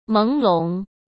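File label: "朦胧", Mandarin Chinese; chinese-flashcards; hsk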